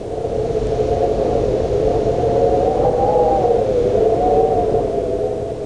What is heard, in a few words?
ghost Neutral; Word Wizard Games